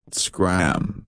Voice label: "scran", reino unido; girias